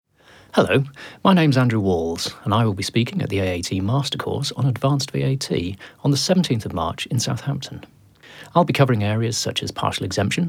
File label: Advert